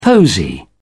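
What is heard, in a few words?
"posy", British English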